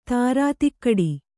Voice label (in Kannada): "ಧೀರತೆಗಿಡು", kannada